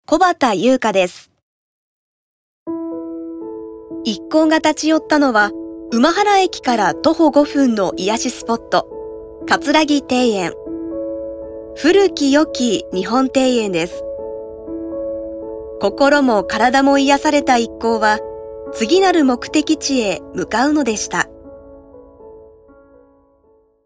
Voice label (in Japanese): ノーマル